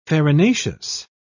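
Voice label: "farinaceous", British English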